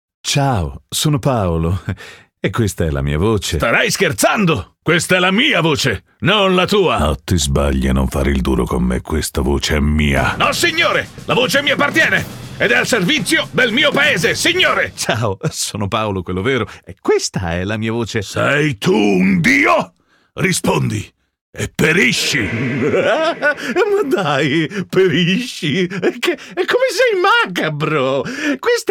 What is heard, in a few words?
ITA characters one man some voices; demo